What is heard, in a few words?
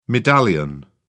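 "medallion", English, British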